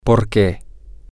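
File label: because, spanish, male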